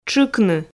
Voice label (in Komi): "тшыкны", Komi